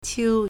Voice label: "qiu1", Chinese